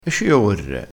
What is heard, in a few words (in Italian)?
"e ci orre", crespinese, vocabolario dialettale